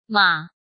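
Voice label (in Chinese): "马", Chinese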